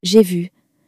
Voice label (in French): j'ai vu, common french words